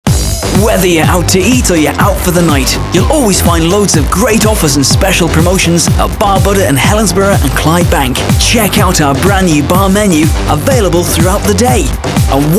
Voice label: Voice-Over Showreel